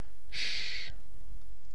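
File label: "shh", British English; pronunciations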